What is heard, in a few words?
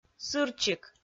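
"сырчик", Komi, female; audio dict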